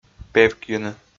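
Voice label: "певкйыны", Komi, male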